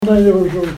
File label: "nenbozhoo" pronunciation; Aadsookaan related words